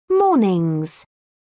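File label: "mornings", British English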